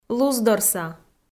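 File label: "луздорса", Komi, female; kpv; audio dict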